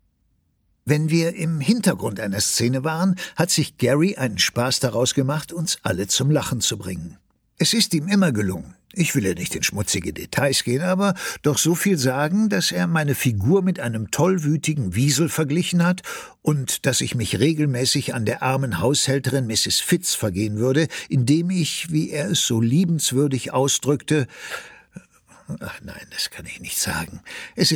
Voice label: Hörbuch, maenner; Clanlands

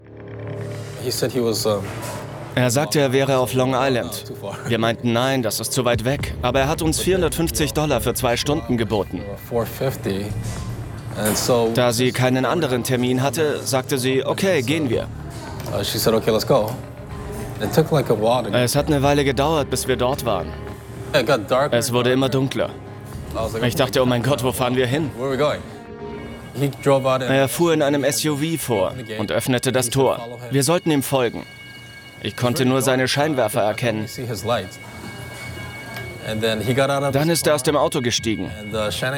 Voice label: VoiceOver, maenner; Long Island Serial Killer

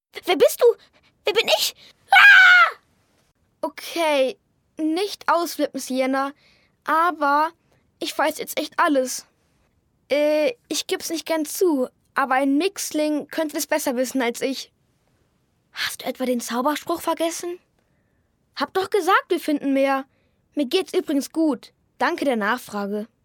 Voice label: Hörspiel, jungen

